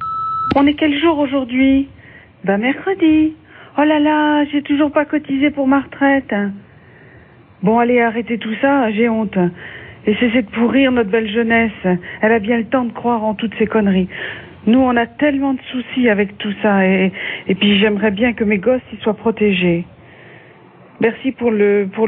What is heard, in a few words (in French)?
Pub retraites répondeur